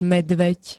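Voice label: "medveď", Slovak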